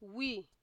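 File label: "we", Nigerian Pidgin, female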